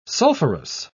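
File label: "sulphurous", British English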